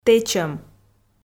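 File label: "тэчӧм", Komi, female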